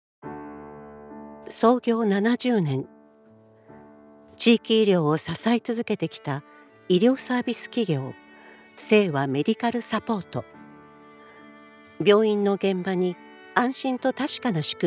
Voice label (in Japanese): 企業vp